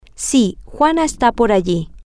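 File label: yes Juana is over there, Spanish, female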